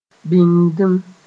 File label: "bĩngdim", Mooré; stock